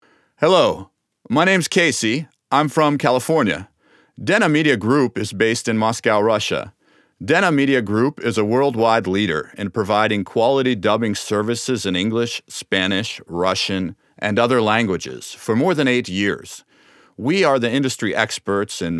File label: US